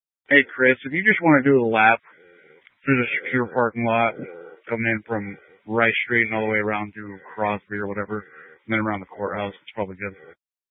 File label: Mesa County Sheriff Dispatch